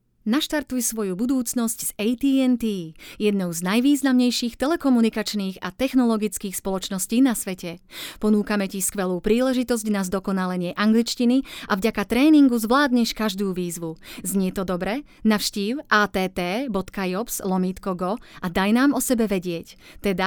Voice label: Radio Commercial